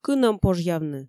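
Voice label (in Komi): "кынӧм пожъявны", Komi, female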